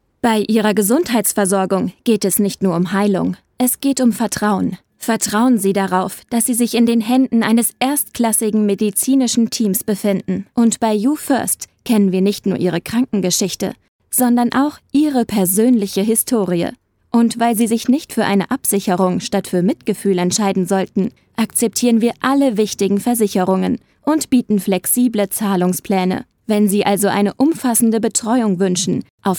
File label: Professional